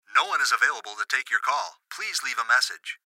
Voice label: Phone Message Male No One Is Available To Take Your Call Please Leave A Message; Telephone; Operator Voice; Technology; Recorded Voice; Blastwave